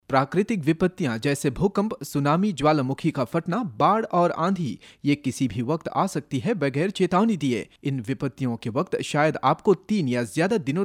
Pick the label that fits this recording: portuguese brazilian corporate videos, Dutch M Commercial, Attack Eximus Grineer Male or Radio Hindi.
Radio Hindi